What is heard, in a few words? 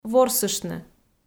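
"ворсыштны", Komi, female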